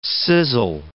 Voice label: "sizzle", English